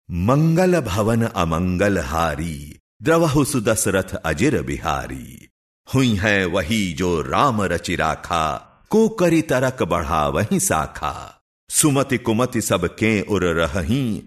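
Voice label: Bhojpuri, male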